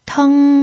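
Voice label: "teng", Mandarin Chinese pinyin, first tone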